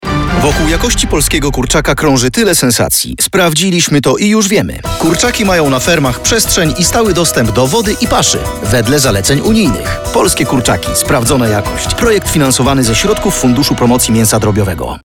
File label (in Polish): Kury RADIO POP